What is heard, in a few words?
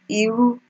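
"iru", Nigerian Pidgin, female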